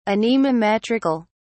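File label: "anemometrical", British English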